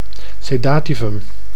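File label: "sedativum", Dutch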